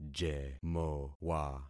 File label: "jemowa", concat syllables; Affixing experiment